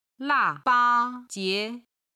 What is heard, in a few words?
"la4 ba1 jie2", Chinese